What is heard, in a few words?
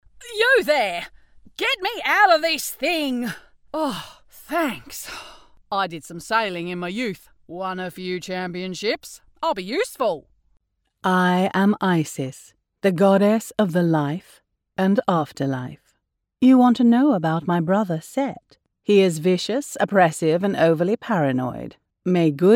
Character Accents Gaming Voicereel